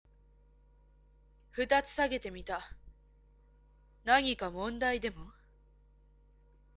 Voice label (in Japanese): onna